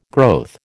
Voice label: "growth", American

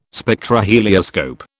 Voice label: "Spectrohelioscope", British

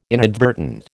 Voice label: "inadvertent", American

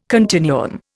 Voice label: "continuum", Indian

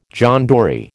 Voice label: "John Dory", American

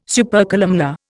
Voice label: "Supercolumnar", Indian

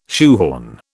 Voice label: "shoehorn", British

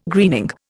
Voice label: "greening", Indian

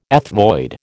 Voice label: "ethmoid", American

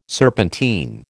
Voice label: "serpentine", American

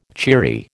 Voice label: "cheery", American

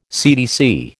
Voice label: "CTC", American

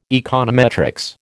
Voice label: "econometrics", American

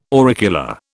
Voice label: "auricula", British

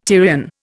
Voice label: "Tyrian", Indian

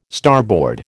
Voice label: "starboard", American

